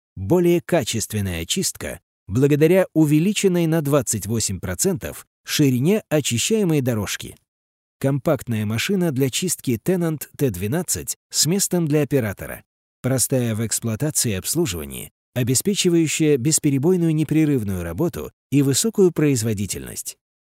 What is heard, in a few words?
Russian